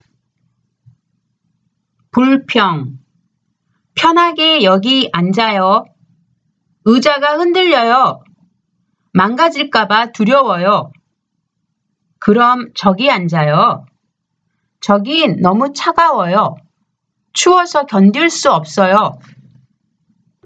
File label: conversation; K-Pop Volume 3